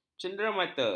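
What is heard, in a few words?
"cenderamata", Malay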